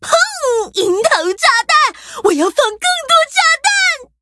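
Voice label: levelup, Traditional Chinese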